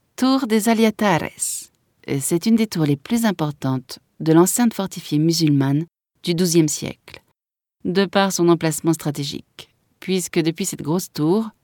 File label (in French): audioguia, frances; audioguia baeza